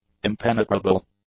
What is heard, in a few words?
"impenetrable", English; adjectives